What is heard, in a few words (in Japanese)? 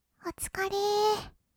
"おつかれー", kawaii voice; ASMR; 色々なワンショット